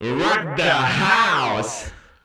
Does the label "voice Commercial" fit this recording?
No